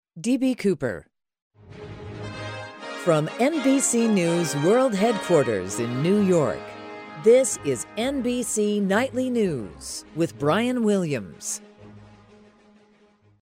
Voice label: Nightly News Open; NBC; Auditions